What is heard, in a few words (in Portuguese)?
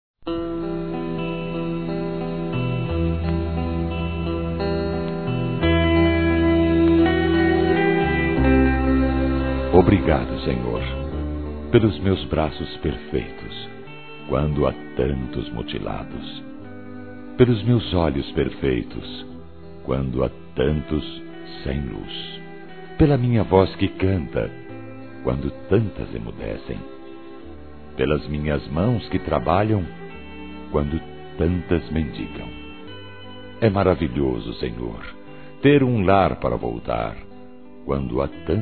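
dialogo com Cristo, masculino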